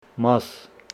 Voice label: Homshetsi body hair